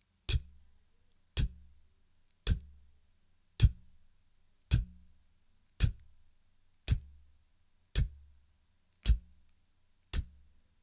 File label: sound t, pronunciation